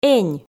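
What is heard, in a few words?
"энь", Komi, female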